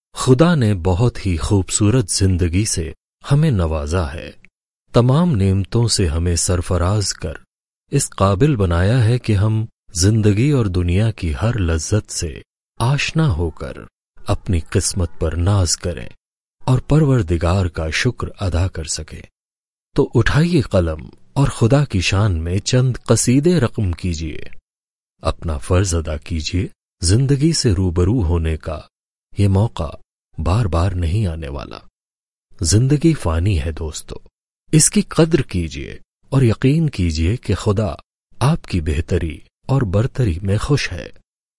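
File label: Urdu male Narration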